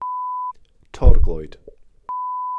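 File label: "torglwyd", Welsh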